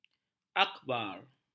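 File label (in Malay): "akhbar", Malay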